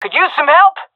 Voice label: request help, vo fem; agents; Source Model Packs; Counter Strike Model Pack; CS2

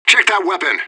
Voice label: radio bot under fire friendly; fbihrt

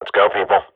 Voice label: radio bot go, swat; Counter Strike Model Pack; CS:GO

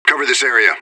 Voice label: radio bot hold; fbihrt

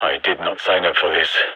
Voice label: scared emote; gign

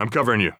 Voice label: covering friend, heavy; vo; Counter Strike Model Pack; CS:GO; phoenix coop

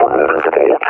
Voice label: announce attack cover, officer; combine; Half Life Alyx; Half Life Model Pack

